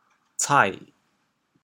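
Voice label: "cai3", Teochew, chaoyang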